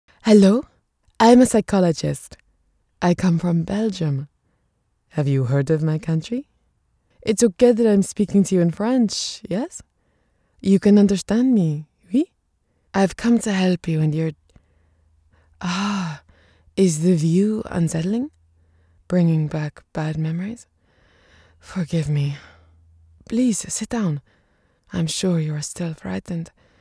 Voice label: english international audiobooks

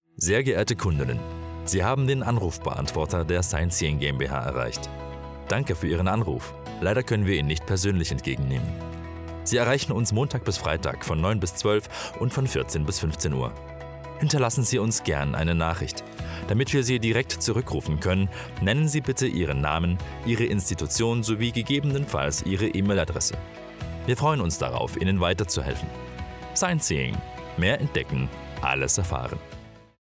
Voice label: german ivr

